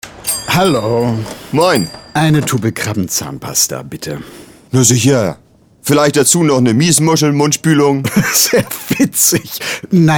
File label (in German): Hörfunkspot; Chitodent; NDR